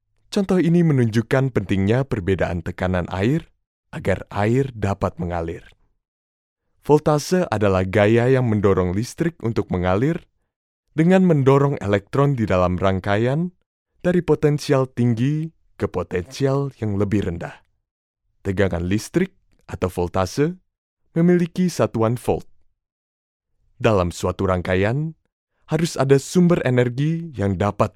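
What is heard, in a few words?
Indonesian, E-Learning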